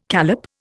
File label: "callop", Indian